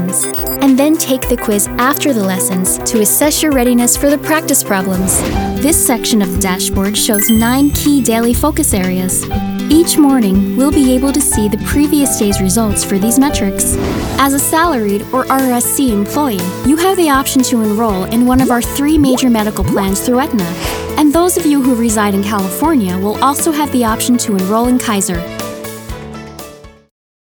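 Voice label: elearning tutorial, Fun, friendly, young, girl-next-door; Narration, eLearning, Whiteboard/Explainer, Corporate, Medical Narration, Phone Hold/IVR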